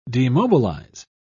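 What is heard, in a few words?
"demobilise", British English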